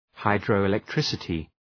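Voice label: "hydroelectricity", English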